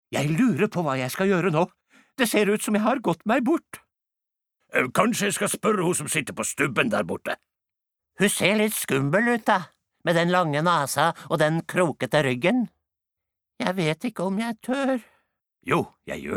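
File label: Norwegian dub character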